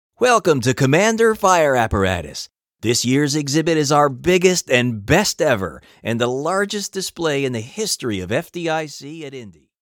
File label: tradeshow, narrations